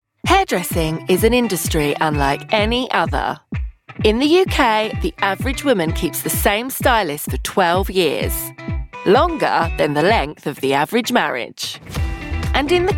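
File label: British English General; GoLocalise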